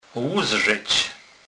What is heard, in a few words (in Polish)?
"luzrzec", kurpiow